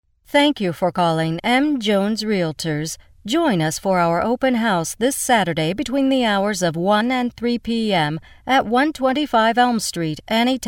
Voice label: Narrator Dry

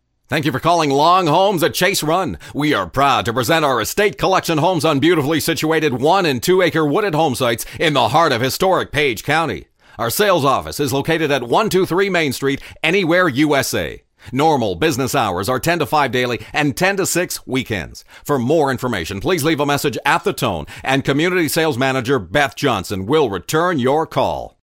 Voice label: Hip and Trendy Dry

